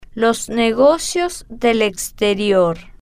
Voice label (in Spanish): "los negocios del exterior", Spanish